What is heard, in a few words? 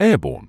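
"airborne", British English; ˈeə.bɔːn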